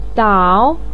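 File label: "dao3", Mandarin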